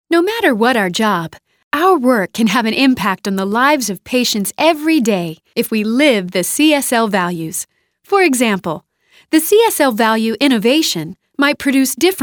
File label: 20s 30s Female Narration